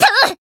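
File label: Voice attack, Japanese; tiramisu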